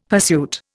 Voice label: "pursuit", Indian; Dictionary

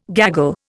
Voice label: "gaggle", Indian

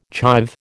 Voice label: "chive", American

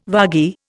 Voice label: "vagi", Indian

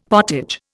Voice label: "pottage", Indian; Dictionary

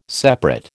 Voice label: "separate", American

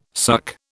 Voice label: "suck", British

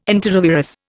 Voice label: "enterovirus", Indian

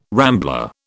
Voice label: "rambler", British

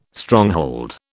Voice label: "Stronghold", British

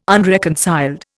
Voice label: "unreconciled", Indian

